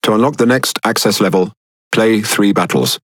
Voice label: Speech Access Level Unlock, EN GB; World Of Warships

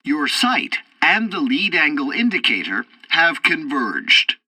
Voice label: tutorial fighter fight, english; War Thunder

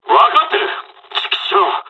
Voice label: dialog, dialogs japanese; us midway; War Thunder

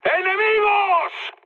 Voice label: enemy detected, mood high, speech; War Thunder

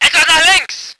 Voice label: voice ger vehicle

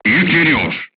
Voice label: voice message reloading, crew tanks turkish

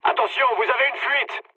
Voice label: damaged water mood med, speech fr; War Thunder

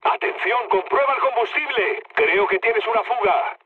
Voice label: tank damaged, mood low, speech; War Thunder

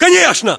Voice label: response ack norank yes, battlechatter ru; localized english

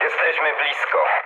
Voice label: near waypoint mood low, speech pol; War Thunder

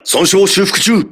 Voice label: repairing, voice message, crew ships japanese

